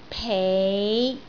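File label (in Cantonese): "pei5", Cantonese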